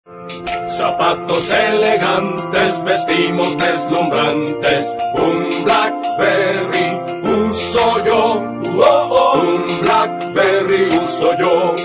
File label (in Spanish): anuncio; blackberry boys; unefon